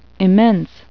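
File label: "immense", English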